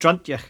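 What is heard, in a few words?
"druntyach", Cromarty Fishertoun dialect; [drrUNT-ye-ach]; bad-tempered; Word; fishertoun dictionary; cromartymuseum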